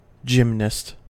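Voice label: "gymnast" US English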